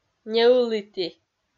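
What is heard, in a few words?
"неылыті", Komi-Zyrian, female; kpv audio dict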